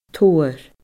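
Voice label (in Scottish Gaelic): "todhar", Scottish Gaelic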